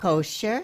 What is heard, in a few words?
"kosher", Yiddish